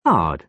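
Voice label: "card", British English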